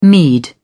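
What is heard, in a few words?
"mead", British English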